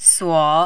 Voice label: "suo3", mandarin